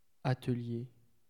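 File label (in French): "atelier" French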